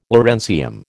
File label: "lawrencium", American